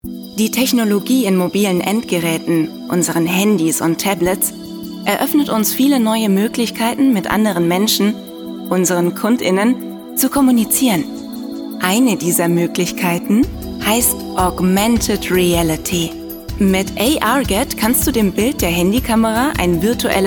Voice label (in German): Explainer; ARget